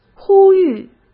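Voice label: "呼吁", Mandarin Chinese; chinese flashcards; hsk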